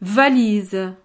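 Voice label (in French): "valise", French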